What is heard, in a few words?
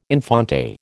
"infante", American